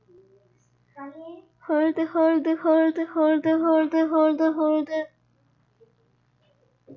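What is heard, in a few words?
"hold", spoken word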